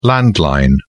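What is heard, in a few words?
"landline", British English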